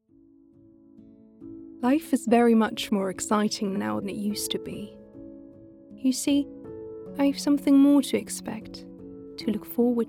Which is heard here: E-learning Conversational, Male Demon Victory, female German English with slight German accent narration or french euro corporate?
female German English with slight German accent narration